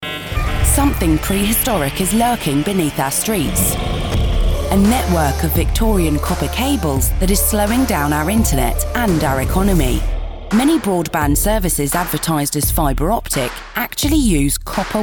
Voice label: english british podcasts